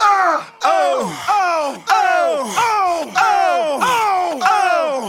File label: "uh oh uh oh uh oh uh oh", Voice